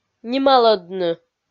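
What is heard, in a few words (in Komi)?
"нималӧдны", Komi, female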